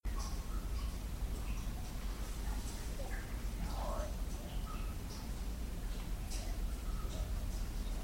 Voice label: whisper